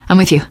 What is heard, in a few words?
im with you, teengirl; survivor voice